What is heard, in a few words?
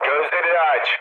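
Turkish player friendly fire, mood low, speech; War Thunder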